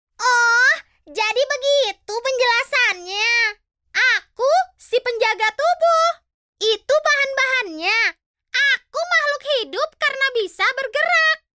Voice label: indonesian cartoon animation

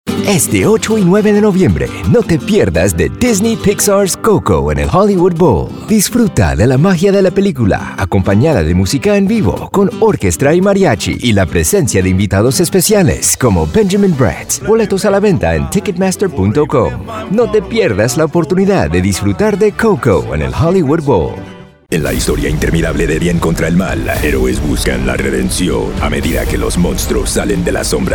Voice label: spanish latin american promos

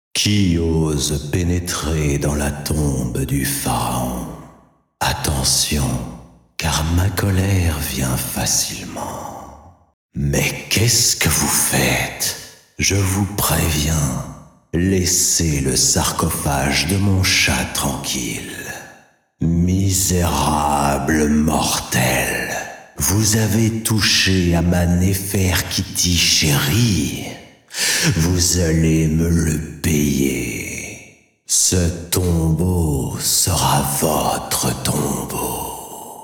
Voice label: french video games

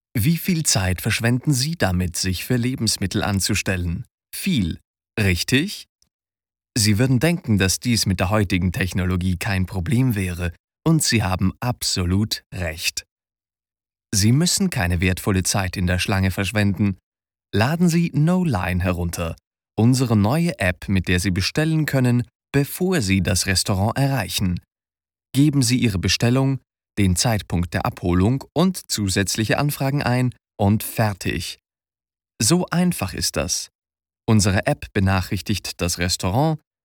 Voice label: german narration

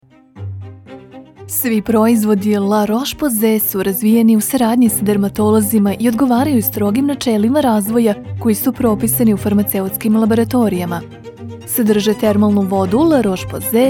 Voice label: serbian commercial; Professional Serbian native voice-over actor from Belgrade

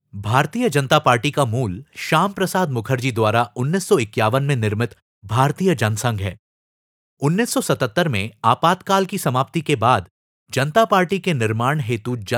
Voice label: hindi audiobooks; Hindi Voice Actor; Native Voice Samples

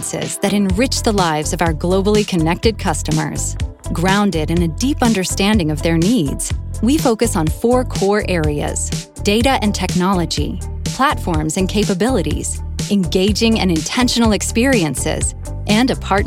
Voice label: english american narration

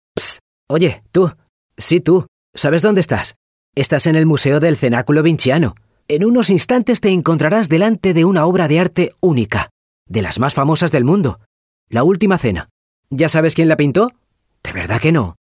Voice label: spanish others

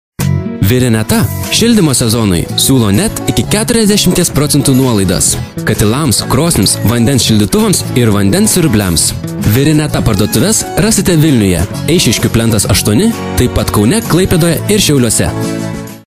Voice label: lithuanian commercial

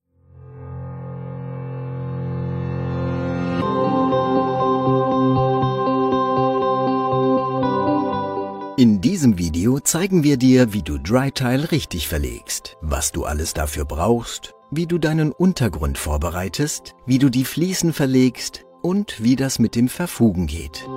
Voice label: german e-learning; professioneller zweisprachiger Sprecher

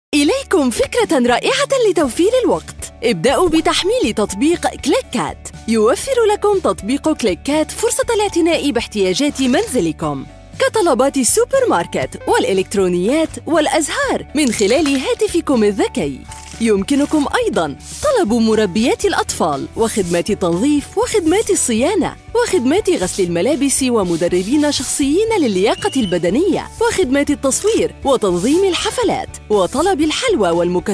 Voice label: Arabic promos

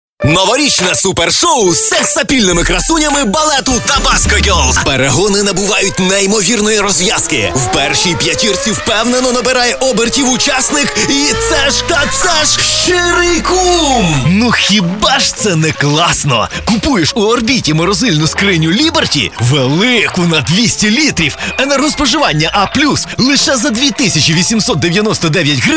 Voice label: ukrainian commercial

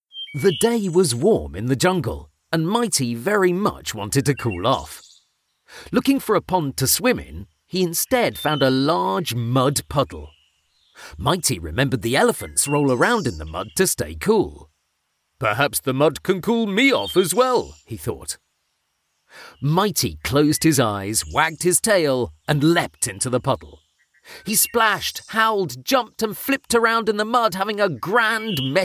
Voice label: English British audiobooks

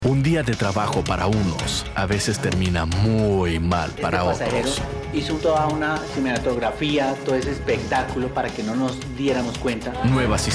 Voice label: spanish latin american tv shows

